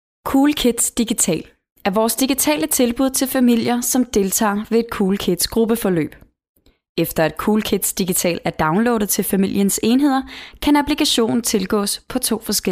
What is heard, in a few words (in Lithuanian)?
(MOT) Danu balsas, moteris